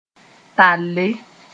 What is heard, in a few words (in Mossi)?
"talle", moore